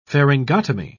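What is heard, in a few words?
"pharyngotomy", British English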